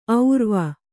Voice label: "ಔರ್ವ", kannada

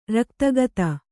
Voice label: "ರಕ್ತಗತ", kannada

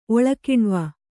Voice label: "ಒಳಕಿಣ್ವ", Kannada

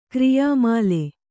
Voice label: "ಕ್ರಿಯಾಮಾಲೆ", Kannada

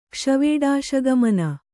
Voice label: "ಕ್ಷ್ವೇಡಾಶಗಮನ", Kannada

